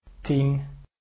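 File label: "ten", Dutch, Standard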